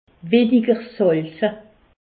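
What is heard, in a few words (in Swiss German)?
"salze", Alsace, Haut Rhin; medecine; réduire le sel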